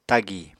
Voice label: "tagì", Apatani

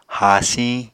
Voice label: "haasiñ", Apatani

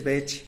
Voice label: "betx" vulture; Tlacochahuaya Zapotec Talking Dictionary; animals

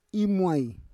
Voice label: "imuai", Roon

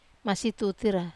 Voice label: "masi tutira", Wamesa

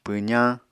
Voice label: "pwzañ", Apatani

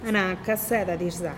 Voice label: "naa kaseda dixza", zapotec; i am learning zapotec

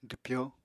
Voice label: "dwpyó", Apatani

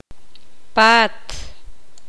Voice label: poqomam; casa

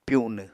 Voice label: "pyunw", Apatani

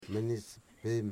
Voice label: "sago pancake", Wamut

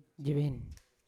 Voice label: "jevena" no Yawa

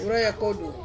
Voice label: "uraia kodu" twelve, Ansus

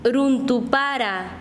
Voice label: Chanka, quechua; granizo

